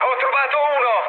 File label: player killed enemy mood med, speech it